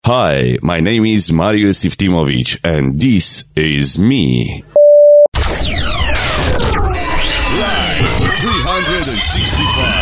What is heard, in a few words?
Romanian English, Male